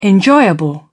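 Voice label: "enjoyable", British English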